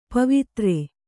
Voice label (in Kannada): "ಪವಿತ್ರ", Kannada